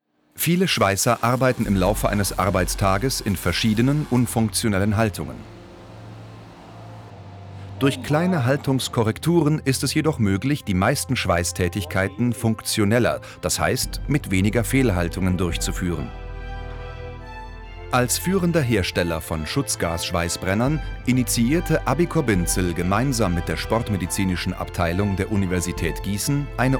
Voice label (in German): info schweissertraining deutsch